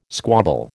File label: "squabble", American